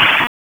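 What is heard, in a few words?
Raleigh Police North District Tactical, p25; wake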